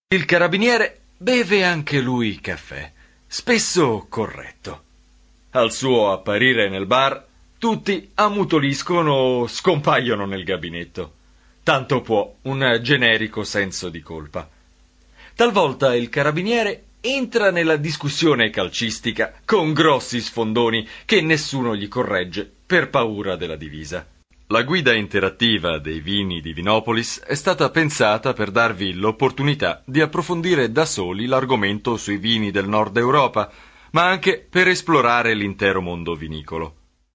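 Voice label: Italian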